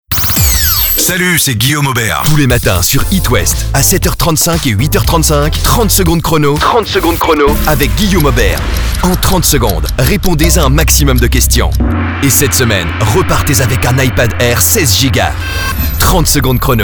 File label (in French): spot promo; 30 sec chrono ipad